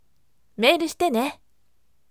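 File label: voice, firm; mail shitene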